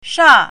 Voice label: "sha4", Chinese pinyin